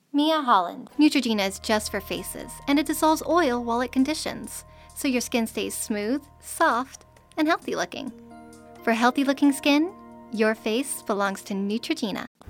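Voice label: Commercial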